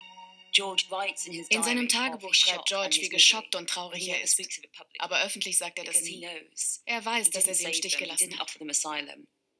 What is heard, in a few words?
Voice Over, Doku, German; ZDF History Doku; Edward VIII